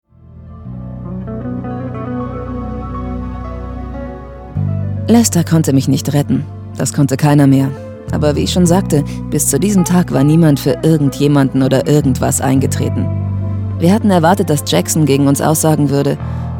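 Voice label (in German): synchron, sprecher; LOFT VOICES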